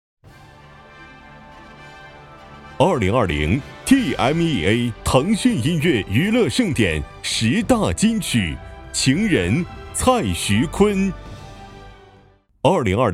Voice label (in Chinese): 男 颁奖; 腾讯音乐娱乐盛典